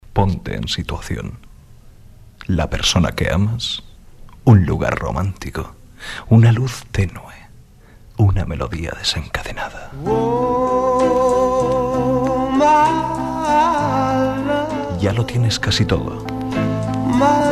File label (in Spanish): Publicidad Radio voces comerciales musica